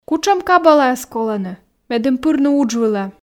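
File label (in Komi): кутшӧм кабалаяс колӧны медым пырны удж вылӧ, Komi, female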